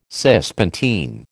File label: "Cispontine", American